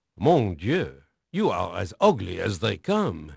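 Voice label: French male, voice; Pirates Of The Caribbean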